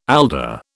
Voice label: "alder", British

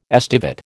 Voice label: "estivate", American

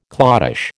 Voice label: "cloddish", American

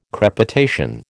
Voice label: "crepitation", American

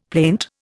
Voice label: "plaint", Indian; Dictionary

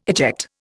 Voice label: "eject", Indian

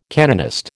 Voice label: "canonist", American

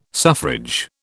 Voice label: "suffrage", British

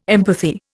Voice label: "empathy", Indian

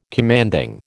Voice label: "commanding", American

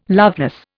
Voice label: "loveless", Indian

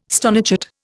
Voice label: "stonechat", Indian

